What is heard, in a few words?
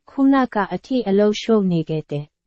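Burmese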